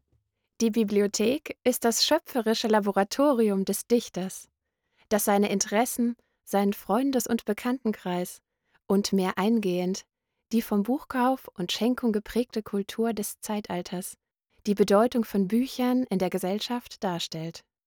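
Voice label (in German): Informative voice over women German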